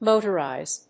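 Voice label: "motorise", English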